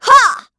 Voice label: Vox Attack kr; heroes; Isolet; kingsraid

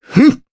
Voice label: Attack, jp; Pavel; kingsraid; voices heroes

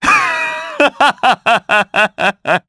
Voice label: Vox Happy, jp; Shakmeh; kings raid

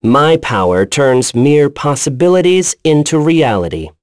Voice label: vox, en; kingsraid

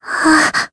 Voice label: Vox Damage jp; Jane; kingsraid

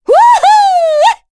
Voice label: Happy; Cecilia; kingsraid

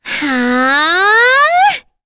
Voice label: Kirze Vox Casting, voices heroes en; kingsraid-audio

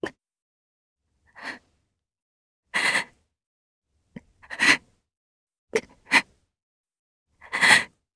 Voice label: Sad, jp; heroes; Tanya; kingsraid

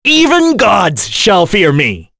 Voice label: Vox Skill, en; Kain; kingsraid; voices heroes en

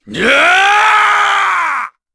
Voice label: Casting, kr; Chase; Kings Raid

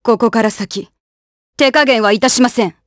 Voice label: Vox Skill jp; Glenwys; kingsraid

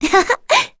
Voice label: Happy; Dosarta; kingsraid; voices heroes

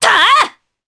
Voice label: Attack, jp; Naila; kings raid

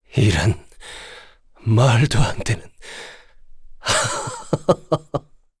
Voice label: Vox Dead kr; heroes; Kain; kings raid

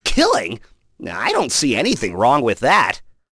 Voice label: vox, en; kingsraid

